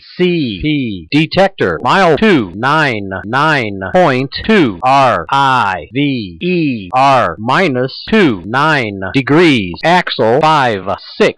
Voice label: defect detector; CP River Sub MP 299.2